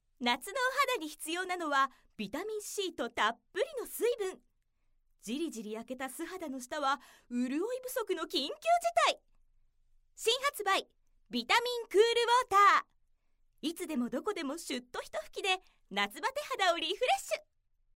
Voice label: narration